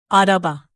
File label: "araba", Turkish native